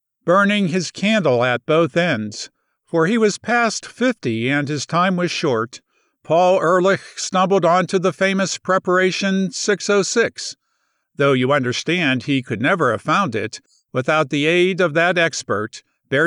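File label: Audiobooks Non-Fiction 3rd-person Science conversational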